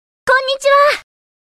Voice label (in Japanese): エモーション（挨拶）; こんにちわ